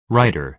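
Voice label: "writer", American English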